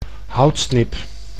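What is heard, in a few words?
"houtsnip", Dutch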